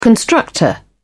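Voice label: "constructor", British English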